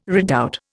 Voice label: "redoubt", Indian